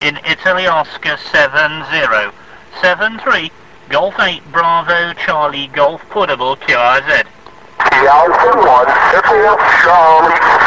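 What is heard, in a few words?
amateur radio contact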